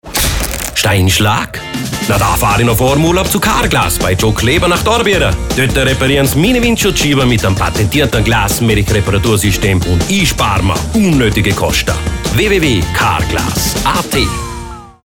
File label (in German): Sprechercasting Spot